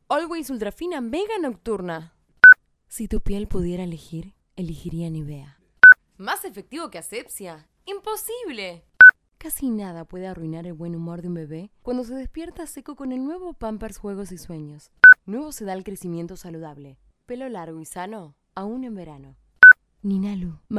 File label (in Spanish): locutora